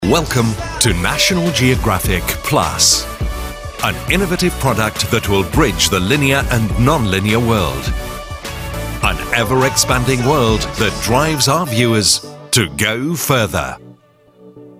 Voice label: English British promos

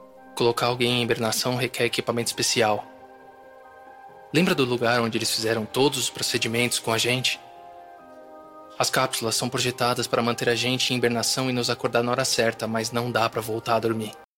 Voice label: portuguese brazilian movie trailers

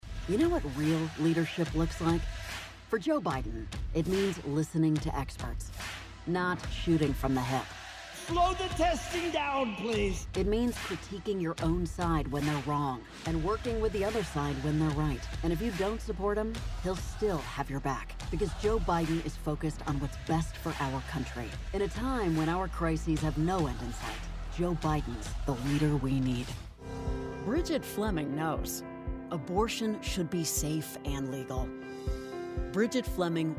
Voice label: english american political ads

